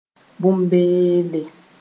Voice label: "bõn-beelle", Mooré